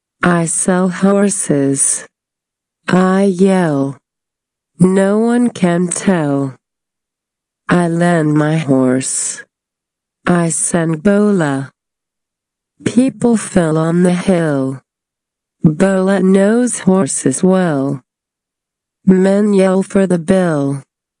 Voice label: Slow